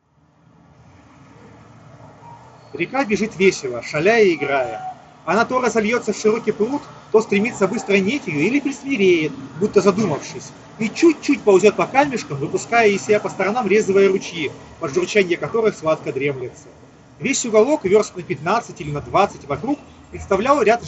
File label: Mic noise; Запись с микрофона Khadas Tea Pro